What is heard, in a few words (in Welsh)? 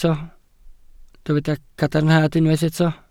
"cadarnhad", Welsh